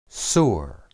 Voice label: "sur", Hebrew